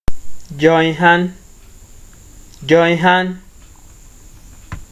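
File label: "joinhand", Nigerian Pidgin, male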